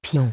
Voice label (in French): "Pawn", French; pion